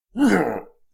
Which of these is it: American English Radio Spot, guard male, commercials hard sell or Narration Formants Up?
guard male